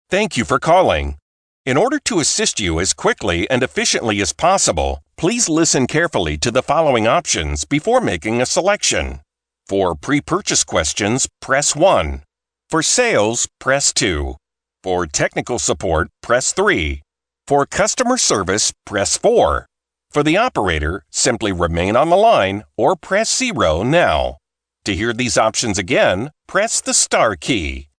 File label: male ivr, Voice Prompts